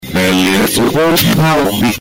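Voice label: "belli", Italian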